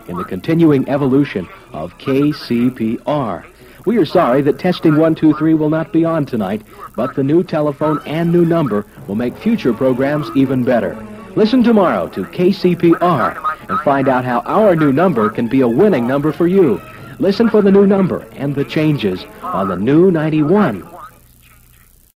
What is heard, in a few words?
promo, new phone